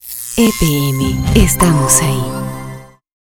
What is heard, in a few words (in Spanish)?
Logo Sonoro con Locucion; EPM